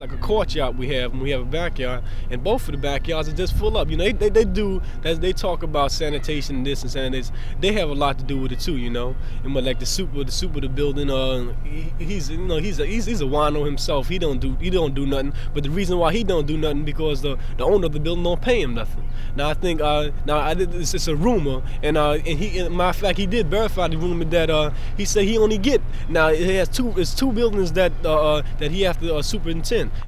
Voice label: New York interviews